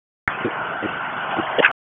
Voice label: FIRE OPS, p25